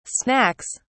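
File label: "snacks", British English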